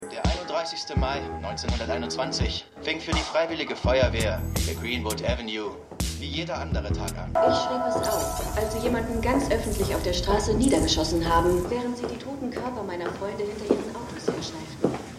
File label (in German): Feuerwehrmann Frau; Stimmproben; Watchmen